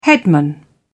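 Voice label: "headman", British English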